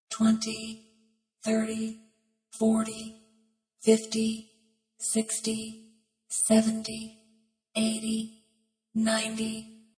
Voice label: Computer voice, counting numbers from 20-90, speaking, (human voice); Computers; VoiceBox